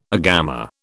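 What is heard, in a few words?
"agama", British English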